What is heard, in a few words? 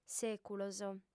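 "sèculos", female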